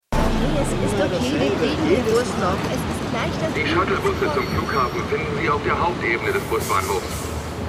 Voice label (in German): Durchsage; Marvel's The Punisher